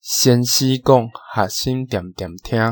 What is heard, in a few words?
Taiwanese Kana Example